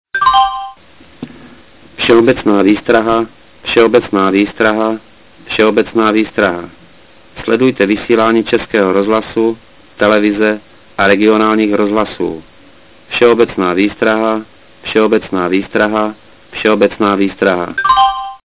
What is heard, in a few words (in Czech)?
verbalni vseobecna vystraha, sireny